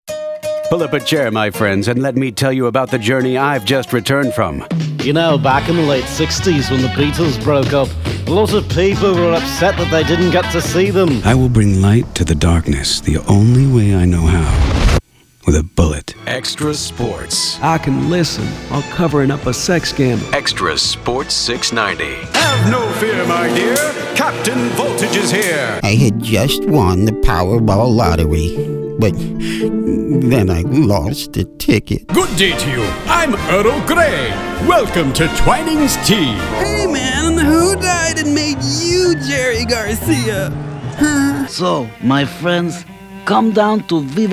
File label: Animation